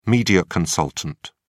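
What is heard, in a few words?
"media consultant", English, British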